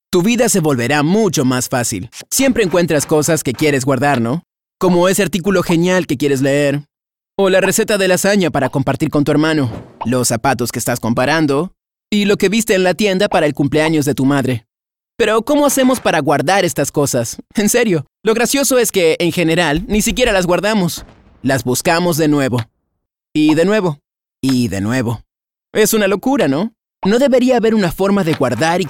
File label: Latin American Spanish Informal Narration